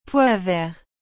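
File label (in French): "pois vert", French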